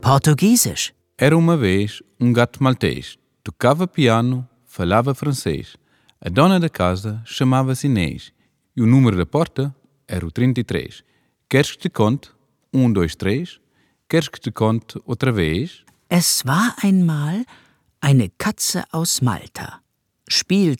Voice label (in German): Portugiesisch, Kinderverse